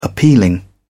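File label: "appealing", British English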